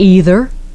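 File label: "either", English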